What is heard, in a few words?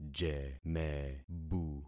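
"jemebu", concatenated syllables; Affixing experiment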